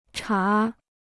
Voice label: "茶", Chinese

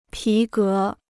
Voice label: "皮革", Chinese

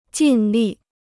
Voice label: "尽力", Chinese

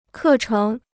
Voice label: "课程", Chinese

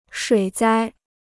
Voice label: "水灾", Chinese

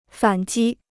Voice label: "反击", Chinese

